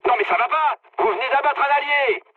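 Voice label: player killed ally, mood medium, French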